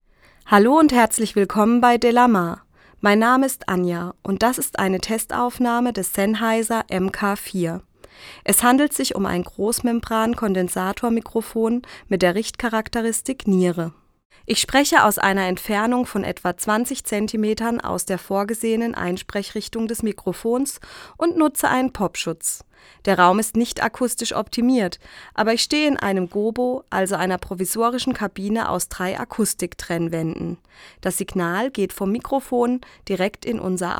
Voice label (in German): sprache f; sennheiser mk 4